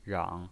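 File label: "rang", Mandarin Chinese, third tone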